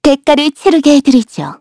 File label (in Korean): Vox Skill, kr; Xerah; kings raid